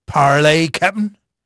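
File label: English male; Pirates Of The Caribbean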